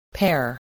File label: "pear", English, voice